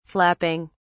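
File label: "flapping", British English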